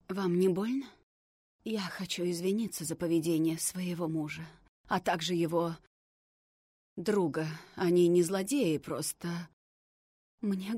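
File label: russian female character; voice demos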